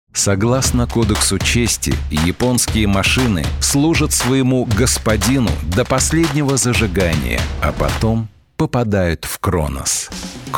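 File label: russian male commercial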